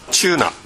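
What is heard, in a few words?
"chuuna", Minamiyamagata dialect Japanese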